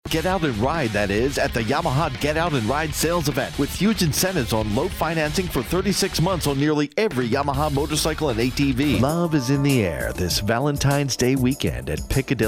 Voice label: Voiceover